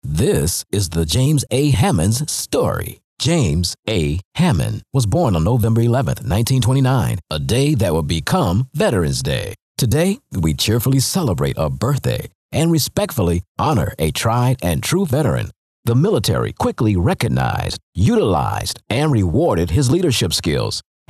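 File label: documentary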